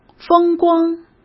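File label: "风光", Mandarin Chinese; chinese-flashcards; hsk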